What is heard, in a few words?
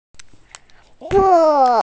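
"bu4", Mandarin Chinese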